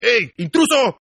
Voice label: Toughguy Intruder Spanish, Tough Hispanic Male; Exclamation; Saying "Intruder!" In Spanish